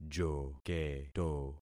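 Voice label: "joketo", concatenated syllables; Affixing experiment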